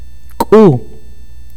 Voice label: sun, akateko

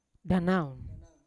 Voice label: "danan", to feel, Yawa

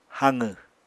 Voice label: "haqw", apatani

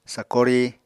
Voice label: "sakori", Apatani

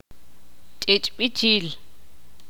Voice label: ixil; congelarse

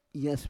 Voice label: "yas", Roon

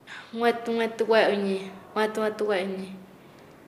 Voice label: Nasa Yuwe; orgullo habla; paez

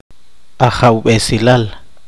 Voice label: poqomchi; paternidad

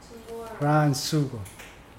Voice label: "branch", Mokilese

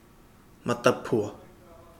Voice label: puddle, Kapingamarangi

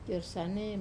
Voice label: "kor sane", interesting, Wamesa

